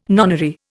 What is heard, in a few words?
"nonary", Indian